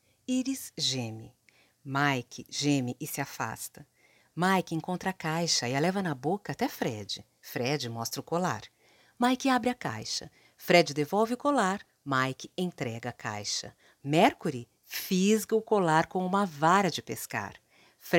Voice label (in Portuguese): narração roteiro